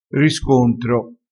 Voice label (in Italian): "riscontro", crespinese